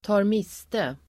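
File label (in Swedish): "tar miste", Swedish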